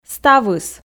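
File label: "ставыс", Komi, female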